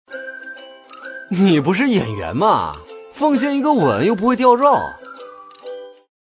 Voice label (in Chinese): 男 角色 纨绔少年